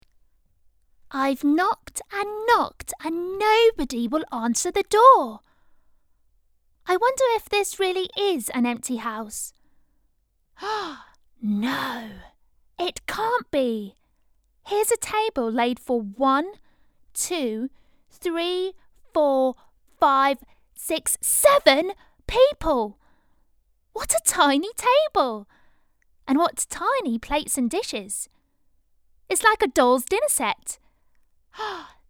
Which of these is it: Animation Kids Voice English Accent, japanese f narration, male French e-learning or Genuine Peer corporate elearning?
Animation Kids Voice English Accent